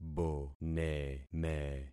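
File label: "boneme", concatenated syllables; Affixing experiment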